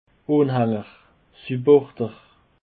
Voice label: "anhaenger", Alsace